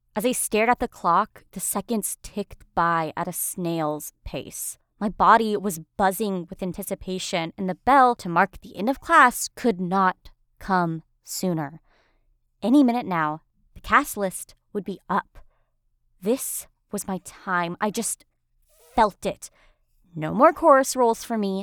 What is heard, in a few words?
YA Sample, voice over